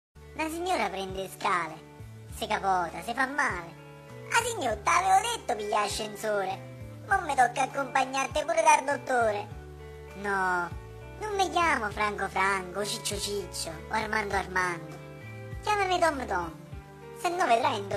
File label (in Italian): Spot Radio; TomTom